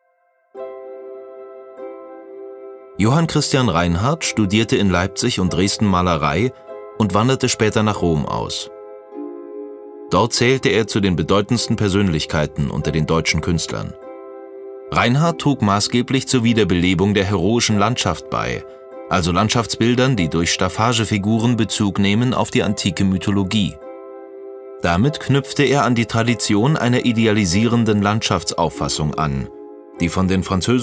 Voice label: audioguide

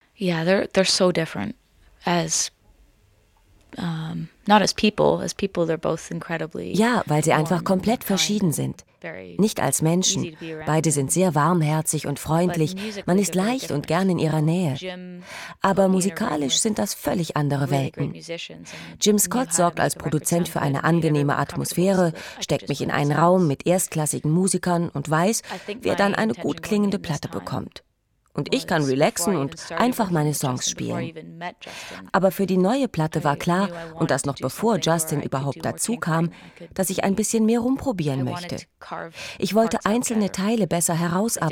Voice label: voice over; dlf

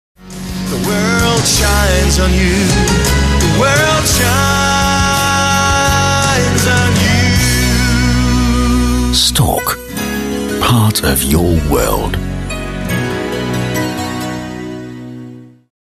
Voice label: tv spot; storck; studio funk hh

